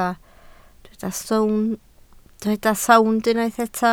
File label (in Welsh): "sownd", Welsh